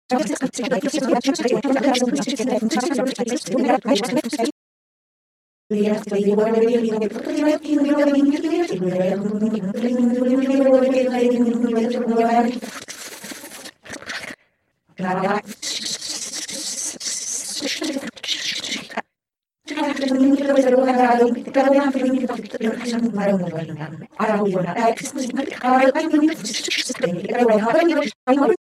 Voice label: voice fem order